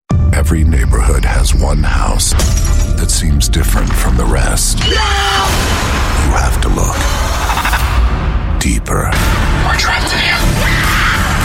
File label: US English trailer